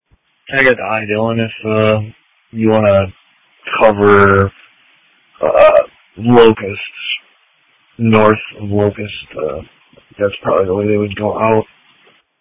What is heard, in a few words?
Police Tactical Encrypted